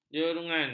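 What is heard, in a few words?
"jerungan", Malay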